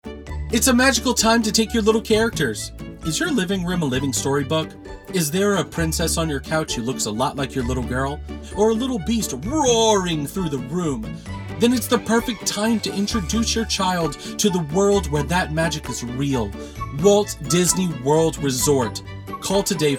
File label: Commercial VO